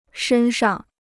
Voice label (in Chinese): "身上", Chinese